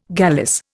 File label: "gallice", Indian